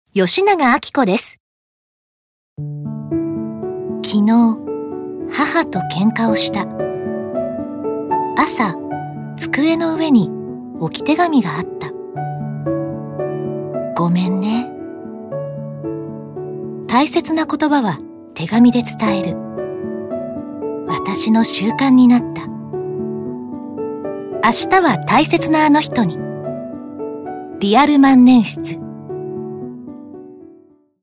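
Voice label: commercial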